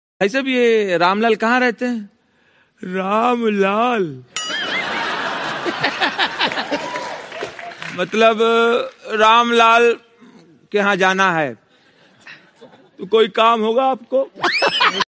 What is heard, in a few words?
Funny Dialogue Ringtone